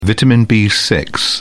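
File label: "vitamin b6", British English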